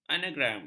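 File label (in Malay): "anagram", Malay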